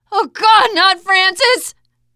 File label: grief biker, teengirl, voice; player; survivor